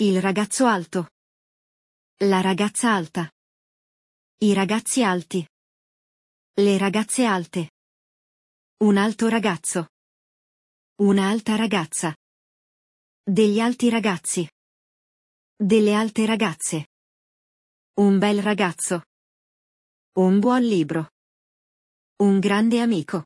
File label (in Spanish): GRAMATICA CLASE ITALIANO